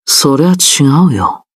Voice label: objection, jp

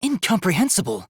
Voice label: incomprehensible; kiibo

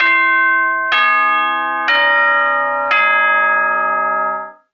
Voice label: announcement

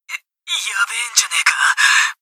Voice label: annoyed; ekuzu

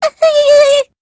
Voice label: stammering

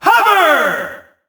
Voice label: "hoover!", announcer; sonic; colors

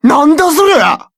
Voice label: surprise

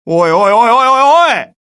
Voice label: scared

